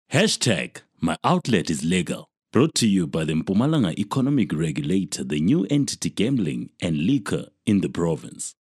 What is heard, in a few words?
male zulu voice over talent english